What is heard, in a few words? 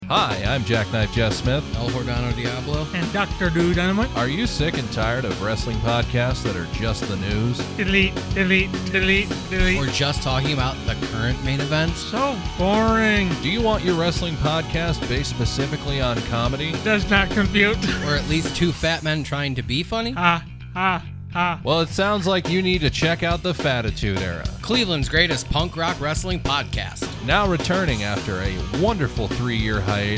promo advertisement; the fattitude era